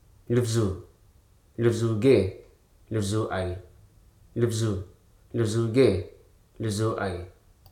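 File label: "high", Hruso Aka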